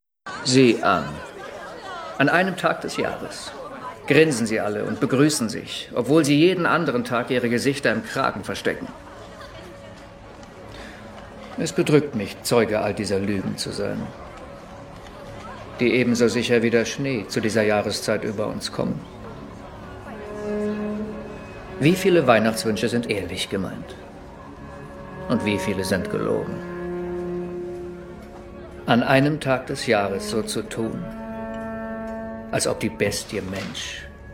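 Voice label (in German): Synchron, Sprecher, Männer; A Christmas Carol 2020; Guy Pearce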